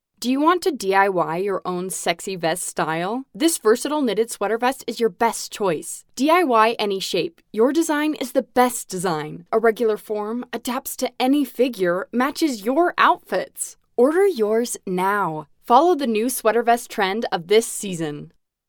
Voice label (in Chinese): 外籍女英 外语 美式英语 讲述